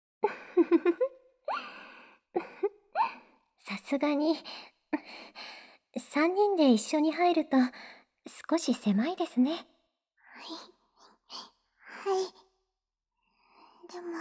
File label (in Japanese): ボイスオンリー, ASMR; 今度は私達が・・・教育を、させて頂きますね